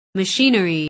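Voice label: "machinery", English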